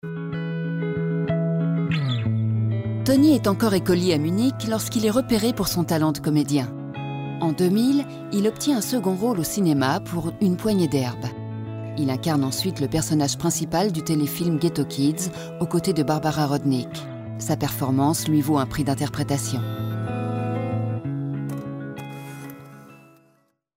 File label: narration; ARTE